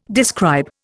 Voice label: "describe", Indian